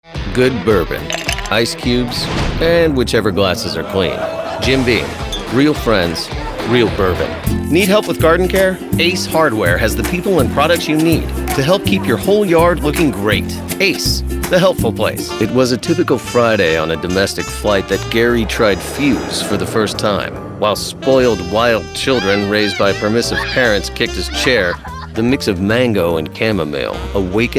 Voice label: COMMERCIAL